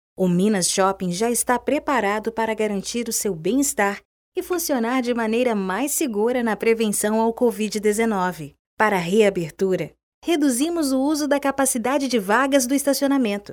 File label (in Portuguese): Institucional com sorriso